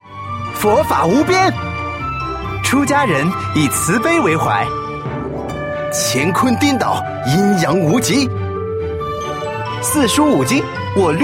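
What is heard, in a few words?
male teenage boy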